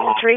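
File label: Fire Department HQ Dispatch; RFD HQ DISP; p25; wake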